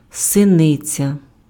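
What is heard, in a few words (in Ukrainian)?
"синиця", Ukrainian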